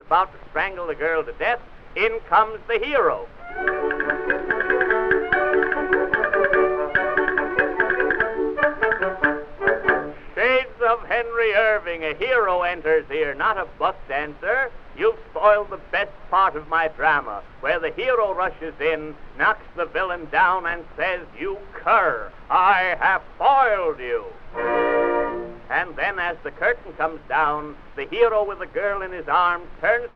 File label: monologue, vaudevil; drama; rehearsing the orchestra; vaudeville special